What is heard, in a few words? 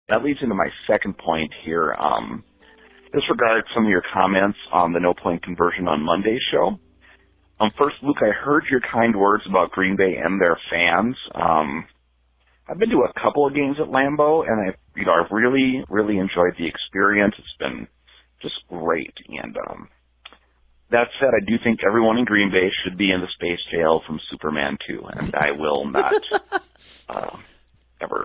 voicemail message